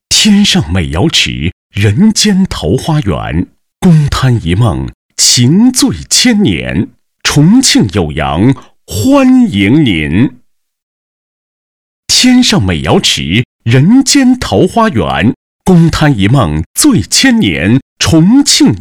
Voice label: 男 裸音; 央视大气专题; 重庆酉阳欢迎您

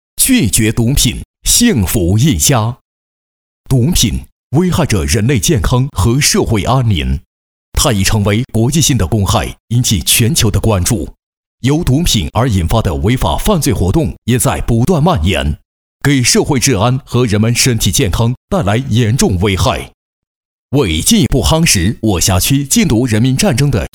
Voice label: 男 街道禁毒; 西航港

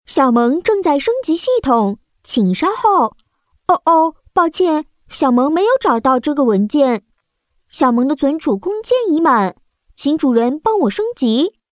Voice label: 女 男童

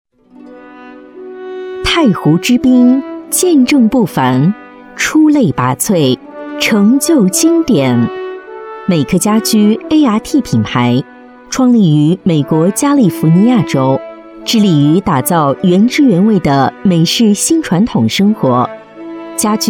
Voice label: 女 专题 公司介绍解说

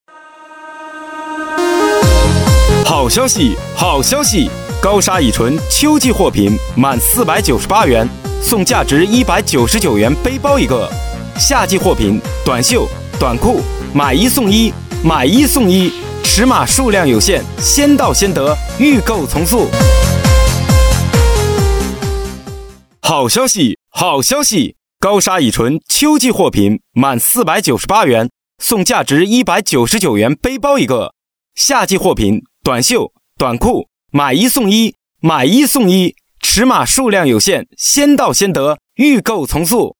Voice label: 促销广告; 以纯

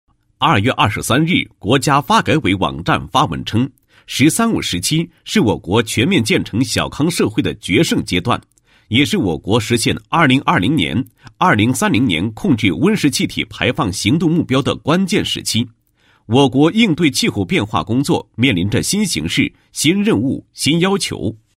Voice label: 男 企业 碳汇资产代理公司宣传解说词

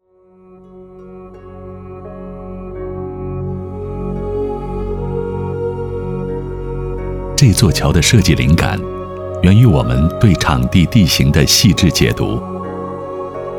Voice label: 男 企业专题 舒缓回味

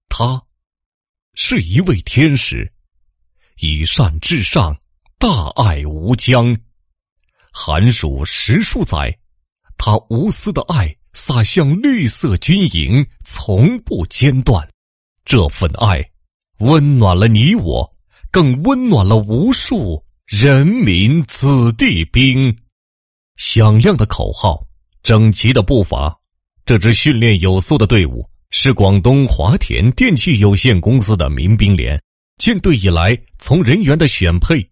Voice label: 男 人物; 华田电器有限公司总经理

